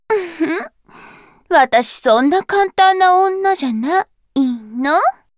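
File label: victory, Japanese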